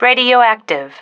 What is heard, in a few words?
"radioactive", female